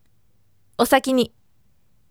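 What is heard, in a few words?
voice "excuse me for leaving first" Japanese, firm; osakini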